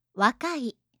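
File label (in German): "wakai", japanische Mädchennamen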